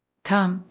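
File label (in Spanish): Voice Acting, es, female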